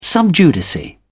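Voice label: "sub judice", British English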